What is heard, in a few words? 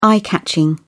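"eye-catching", British English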